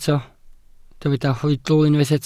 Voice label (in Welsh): "hoedl", Welsh